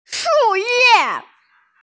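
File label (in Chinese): "shu4ye4", Mandarin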